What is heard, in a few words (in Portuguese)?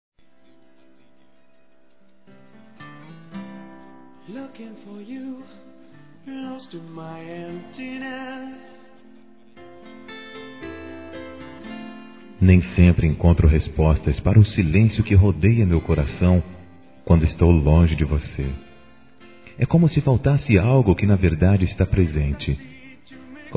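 DISTANTE Masculino, Telemensagens; Dia Dos namorados; Telemensagens 2017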